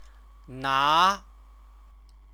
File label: "na", Chinese